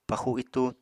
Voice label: "paxu itú", Apatani